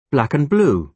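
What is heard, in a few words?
"black and blue", British English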